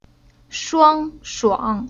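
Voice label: "Shuang", chinese syllable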